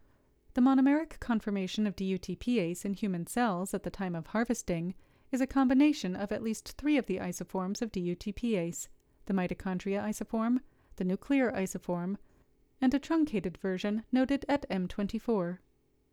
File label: Voiceover